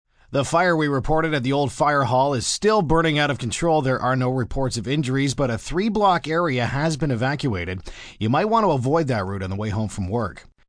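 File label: News Fire M, Broadcast, news, male news item on out of control fire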